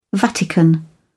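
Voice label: "vatican", British English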